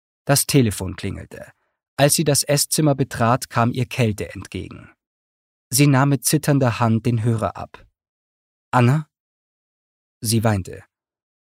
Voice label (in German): Hoerbuch; Eiskalte Umarmung